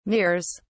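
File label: "mirrors", British English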